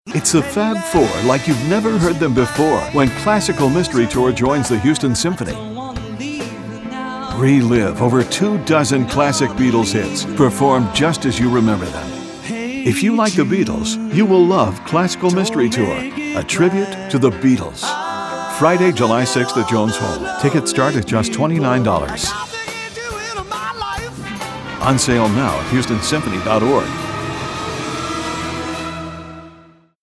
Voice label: Radio